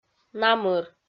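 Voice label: "намыр", Komi, female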